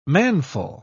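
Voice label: "manful", British English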